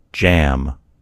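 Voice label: "jam", American English; gcompris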